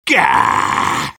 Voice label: jeers; sniper; garrysmod; tf2-content-gmodcontent